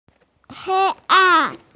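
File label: "darkness", female; hei1an4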